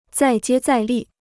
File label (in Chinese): "再接再厉", Chinese